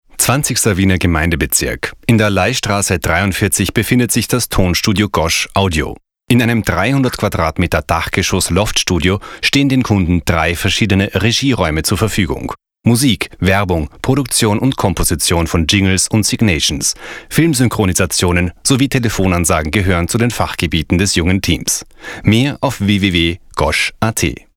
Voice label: sachlich Nachrichten

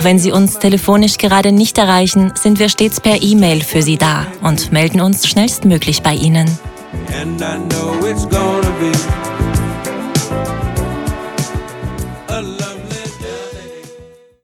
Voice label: eingeschränkte Öffnungszeiten AT; Restplatzbörse

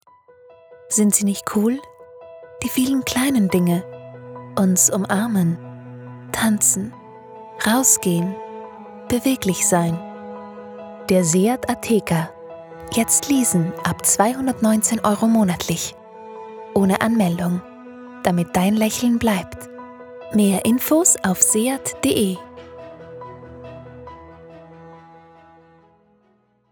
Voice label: Werbung persönlich

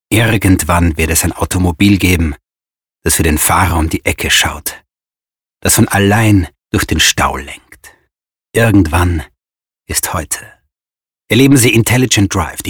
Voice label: Werbung; sklasse

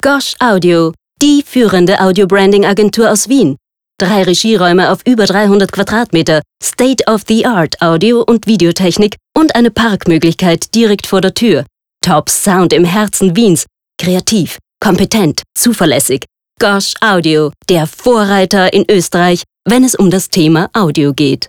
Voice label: plakativ

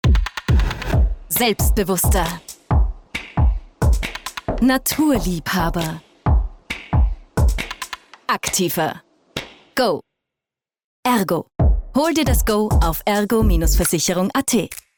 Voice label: Werbespot; ERGO Versicherung

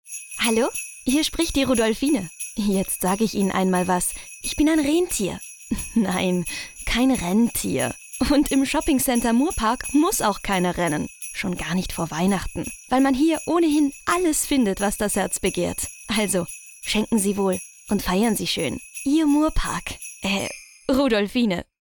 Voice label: Weihnachtsspot

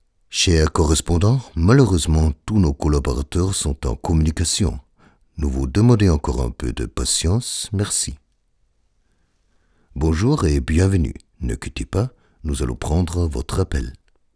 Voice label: Telefonansage Französisch

